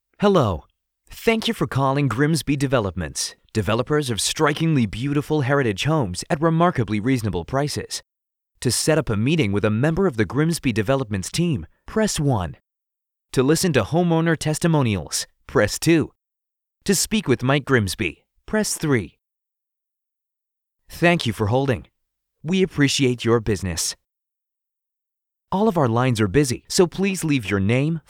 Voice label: IVR US

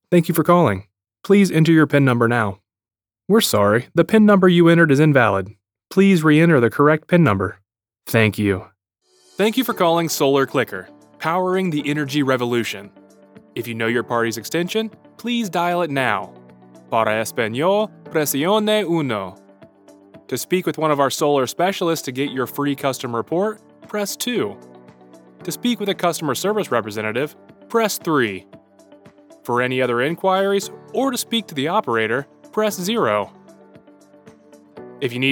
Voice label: IVR

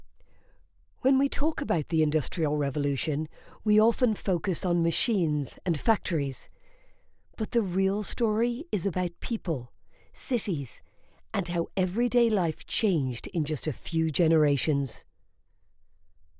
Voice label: Education, E-Learning, Irish female voiceover

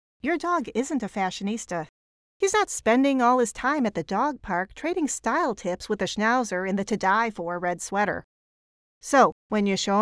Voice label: natural speak; Waggin Train

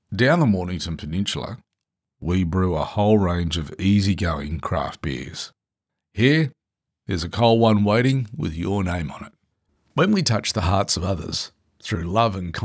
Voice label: dry reads

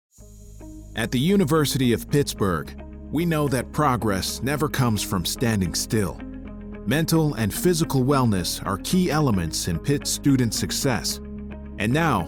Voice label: Commercial Samples

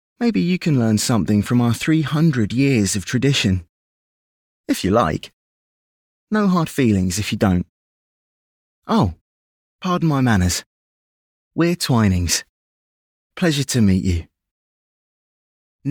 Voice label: Commercial Demo

